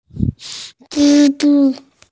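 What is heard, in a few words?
"zhi1 zhu1", Mandarin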